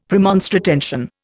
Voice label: "Premonstratensian", Indian; Dictionary